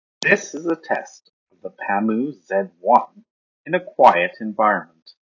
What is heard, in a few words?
Pamu Z1 Quiet